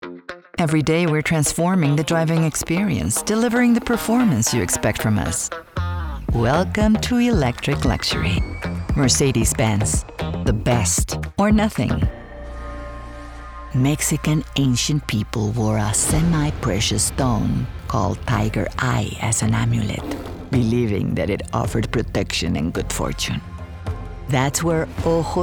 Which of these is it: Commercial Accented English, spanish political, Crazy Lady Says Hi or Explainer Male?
Commercial Accented English